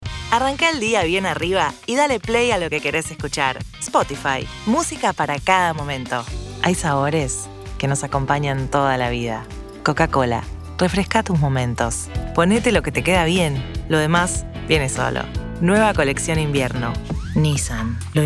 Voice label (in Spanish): Comercial Español Argentina